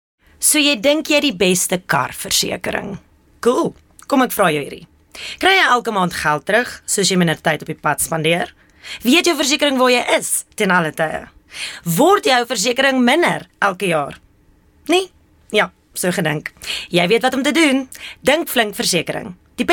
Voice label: Afrikaans Conversational

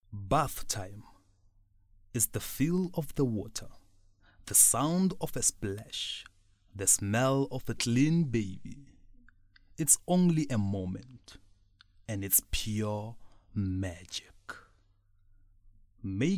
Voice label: English Soft Sell

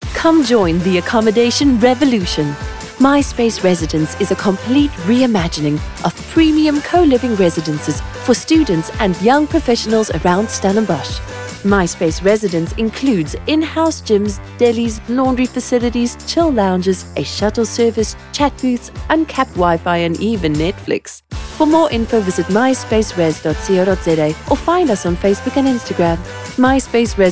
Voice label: English Corporate

